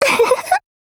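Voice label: MOOD Japanese; USPCompact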